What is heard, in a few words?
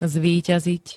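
"zvíťaziť", Slovak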